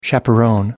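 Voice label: "chaperon", British English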